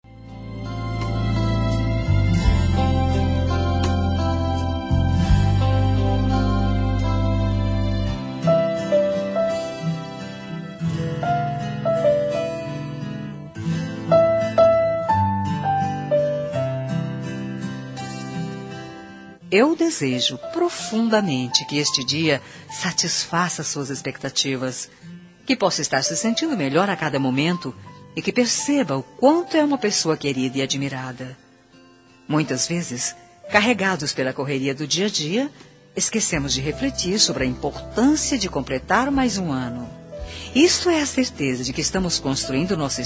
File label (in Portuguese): Voz Feminina; Aniversário de Sobrinho